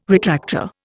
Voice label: "retractor", Indian